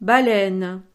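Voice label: "baleine", French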